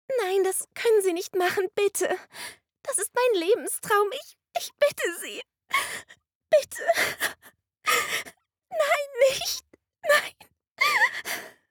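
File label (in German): Anime traurig, sprecherin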